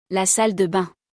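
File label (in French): "La salle de bains", French; vocabulaire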